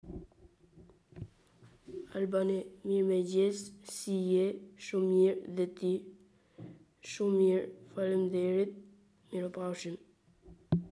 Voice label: Albanais

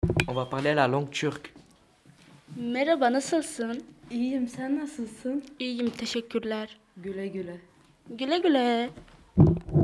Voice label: Turc

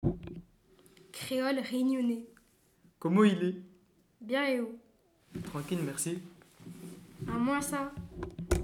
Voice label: Creole reunionnais

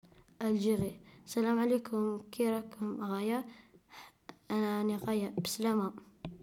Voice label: Algerien

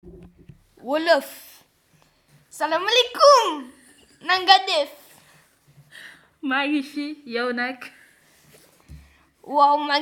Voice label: Wolof

